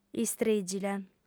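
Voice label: "istrìgile", Sardinian, female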